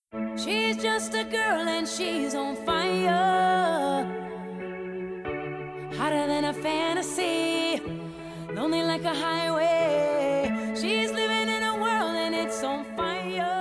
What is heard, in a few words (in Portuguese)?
Cliente secretaria, Telemensagens, Empresarial; masc; Telemensagens 2017